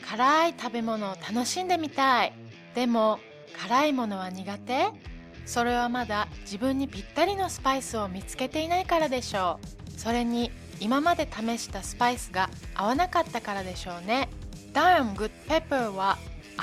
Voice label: commercial ja